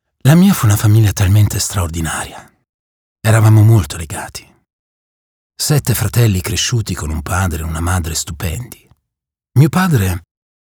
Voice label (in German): Hoerspiel italienisch